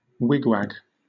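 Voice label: "wigwag", British English; pronunciations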